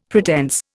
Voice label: "pretense", Indian; Dictionary

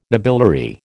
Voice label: "nobiliary", American

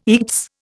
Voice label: "eats", Indian

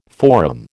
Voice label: "forum", American

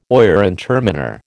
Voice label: "oyer and terminer", American

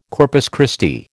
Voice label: "Corpus Christi", American

